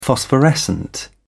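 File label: "phosphorescent", British English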